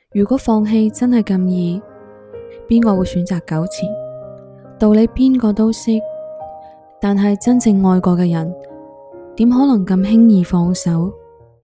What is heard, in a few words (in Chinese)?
粤语独白